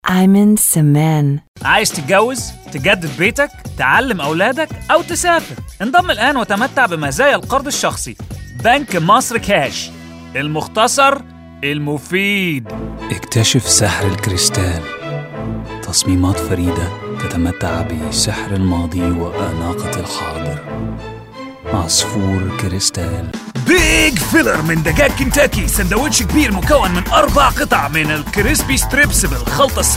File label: Arabic